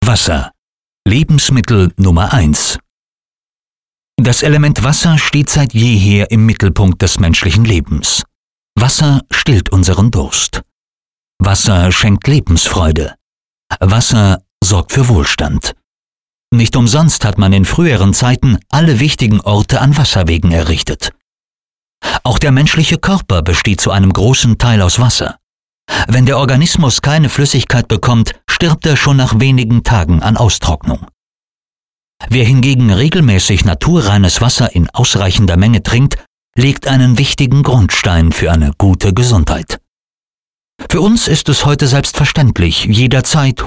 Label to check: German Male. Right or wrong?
Right